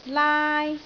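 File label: "laai1", Cantonese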